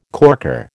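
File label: "corker", American English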